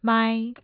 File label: "mai1", mandarin tone